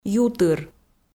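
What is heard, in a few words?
"ютыр", Komi, female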